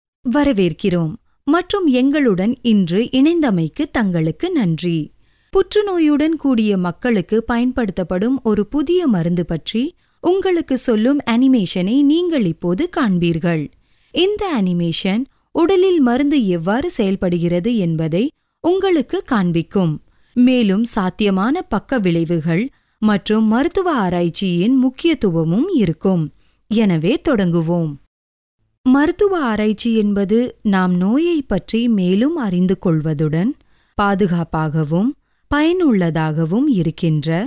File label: corporate, Professional Reliable Tamil Indian Voice Announcer; Tamil Female Voiceovers